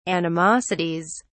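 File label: "animosities", British English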